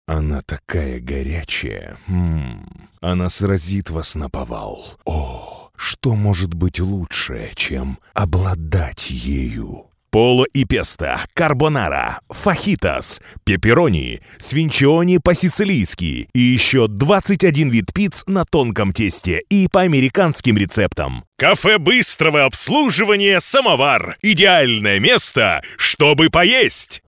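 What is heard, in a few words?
Russian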